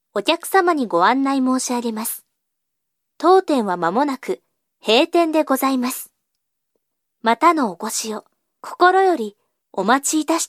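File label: Japanese Closing Announcement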